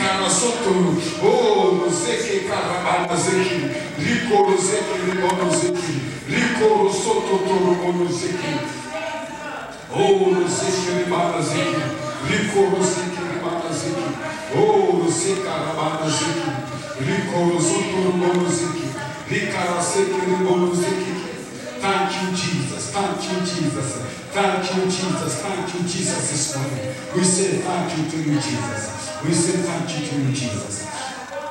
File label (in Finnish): saarna